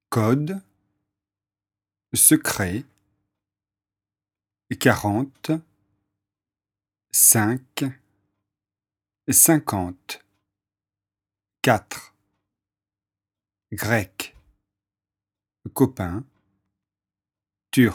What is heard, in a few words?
dictée french